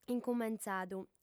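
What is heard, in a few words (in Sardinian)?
"incumentzadu", female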